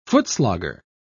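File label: "footslogger", en, uk British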